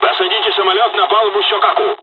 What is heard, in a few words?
landing, dialogs russian; War Thunder